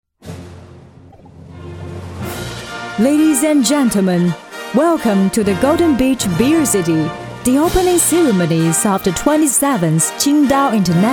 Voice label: 英女 开幕英文; 青岛国际啤酒节

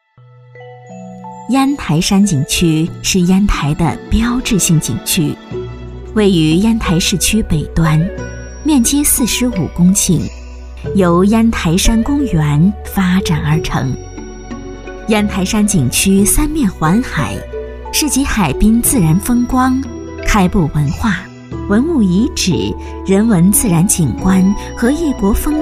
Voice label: 女 风景介绍; 烟台山景区; 国语女声配音

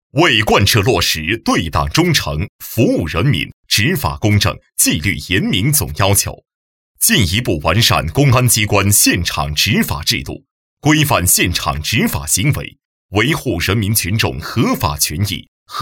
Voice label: 警务, 干效果; 中国公安

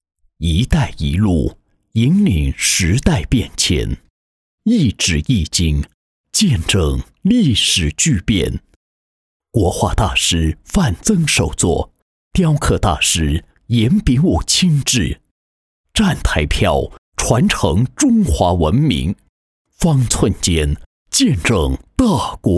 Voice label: 男 诗歌 宣传诗歌; 《丁酉年》站台票

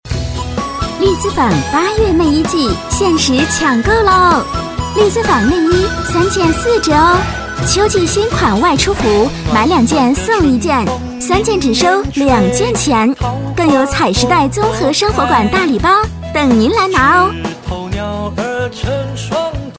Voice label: 女 广告; 丽姿坊; 国语女声配音

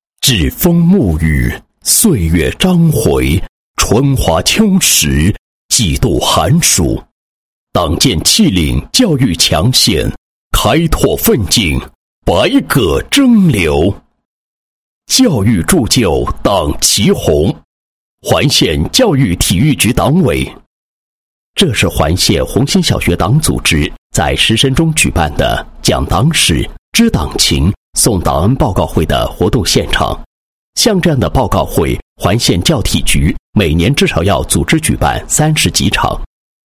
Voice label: 男 党建专题 党建宣传; 县教育体育局党委

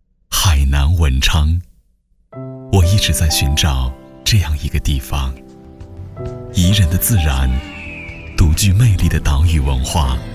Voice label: 男 房产广告 唯美高端房产; 蝶恋海

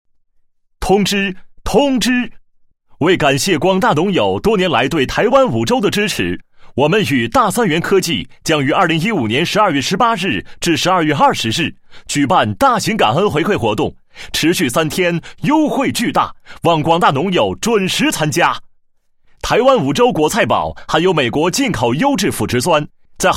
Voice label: 男 促销 大型感恩回馈活动

